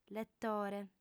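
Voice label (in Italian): "letore", lingua sarda, fem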